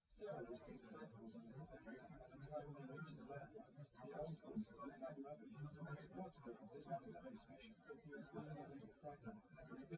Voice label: male, live rec; SiSEC08; SiSEC underdetermined

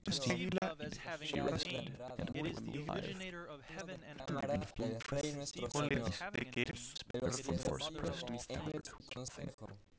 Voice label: male inst sim; SASSEC07

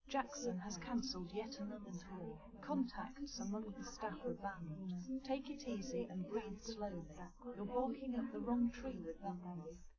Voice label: female live rec; SiSEC10; underdetermined

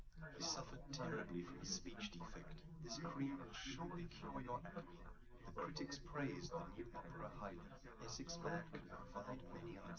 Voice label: male liverec 250ms 5cm; SiSEC10; underdetermined

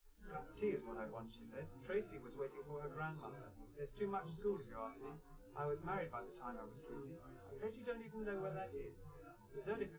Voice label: male live rec; SiSEC10; underdetermined

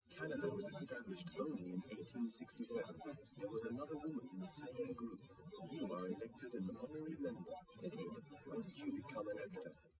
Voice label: male, liverec, src

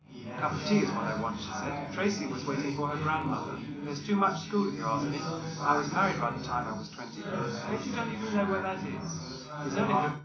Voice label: male4 380ms 50cm, underdetermined; SiSEC11

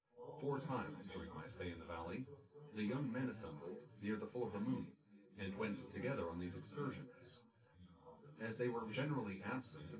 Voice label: male synthconv

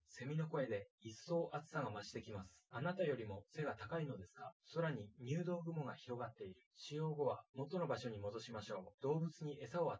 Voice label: test male synth conv, underdetermined; SiSEC10

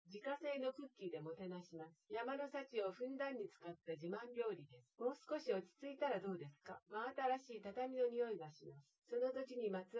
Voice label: female synthconv 130ms 1m; SiSEC08; SiSEC underdetermined

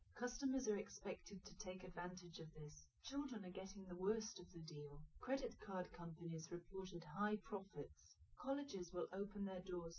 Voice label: female liverec 250ms 1m sim; SiSEC08; SiSEC underdetermined

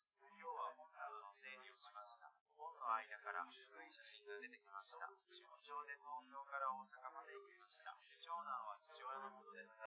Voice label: male, live rec; SiSEC08; SiSEC underdetermined

